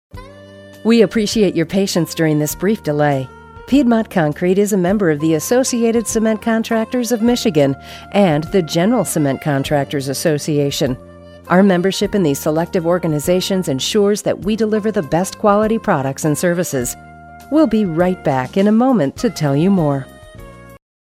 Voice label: concrete company, femalevoices; audio on hold